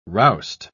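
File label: "roust", British English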